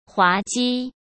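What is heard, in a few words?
"滑稽", Mandarin Chinese; chinese-flashcards; hsk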